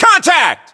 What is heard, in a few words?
contact, US English